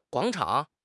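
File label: "guang3 chang3", Chinese; 汉语口语新时代-初级下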